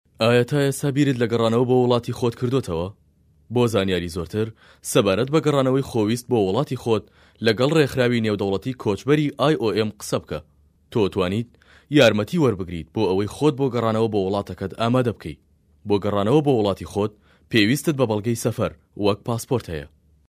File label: Kurdish Sorani Male